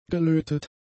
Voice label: "gelötet", German; adjectives

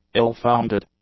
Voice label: "ill-founded", English; adjectives

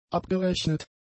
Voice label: "abgerechnet", German; adjectives

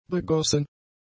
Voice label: "begossen", German; adjectives

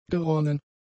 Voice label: "geronnen", German; adjectives

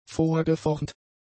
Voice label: "vorgeformt", German; adjectives

